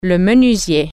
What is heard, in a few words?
carpenter, french